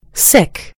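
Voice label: "sick", American English; gcompris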